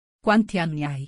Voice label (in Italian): domanda informale